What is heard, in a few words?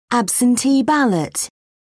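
"absentee ballot", British English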